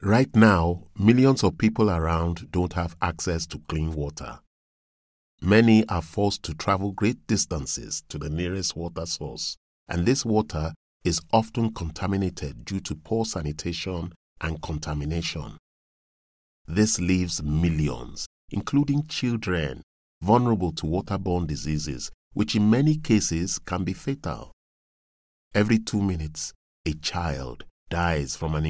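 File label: African English, Charity; voice demos; GoLocalise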